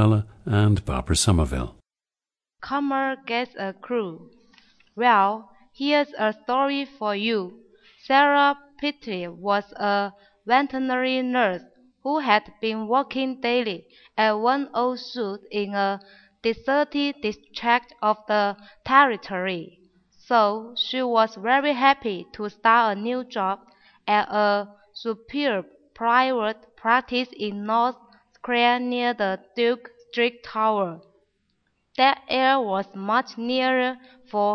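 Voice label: guangxi, International Dialects of English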